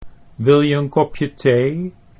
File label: "wil je een kopje thee", Dutch